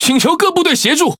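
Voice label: Speech Quick Commands Sos, Taiwan; World Of Warships; ZH TW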